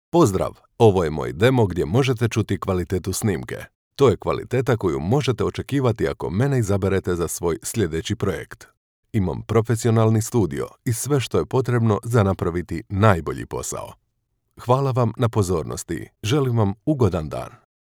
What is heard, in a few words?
croatian male